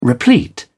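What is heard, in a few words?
"replete", British English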